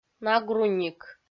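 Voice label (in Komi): "нагруник", Komi, female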